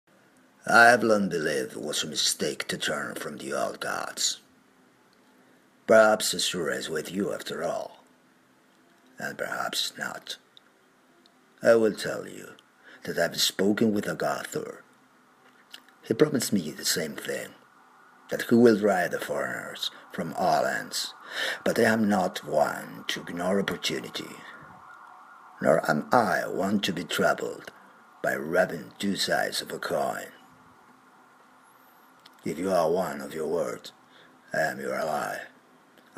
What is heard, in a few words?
male dialogue; Spain; Skywind